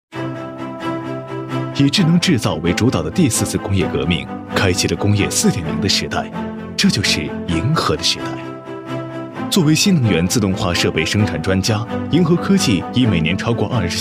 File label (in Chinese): 男 专题 科技感 带干音; 工业4.0